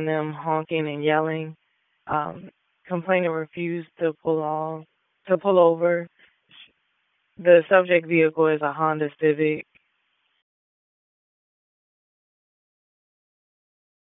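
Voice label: Raleigh Police Southeast District Dispatch; wake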